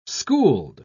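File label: "schooled", British English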